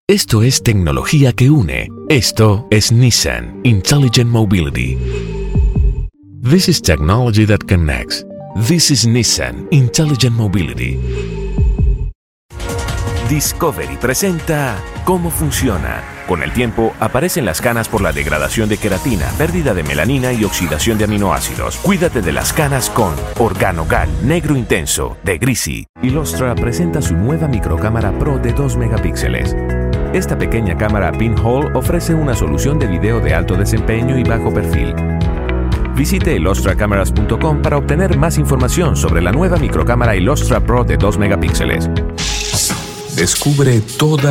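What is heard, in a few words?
Latin American Spanish, commercial; GoLocalise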